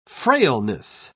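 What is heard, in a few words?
"frailness", English, British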